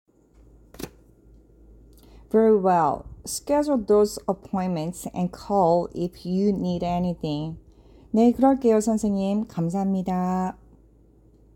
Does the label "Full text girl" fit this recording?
No